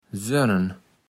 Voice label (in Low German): "sönnen", Platt